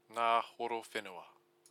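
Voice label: "nga horo whenua", Māori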